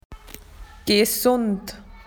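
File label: "healthy", Australian English; PictureDictionary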